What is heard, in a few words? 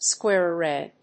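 "square array", English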